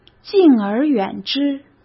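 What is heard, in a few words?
"敬而远之", Mandarin Chinese; hsk; chinese-flashcards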